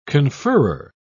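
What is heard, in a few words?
"conferrer", British English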